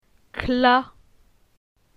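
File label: "Cla", French; phonetique; la syllabe a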